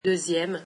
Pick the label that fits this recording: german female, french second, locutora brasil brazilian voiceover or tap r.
french second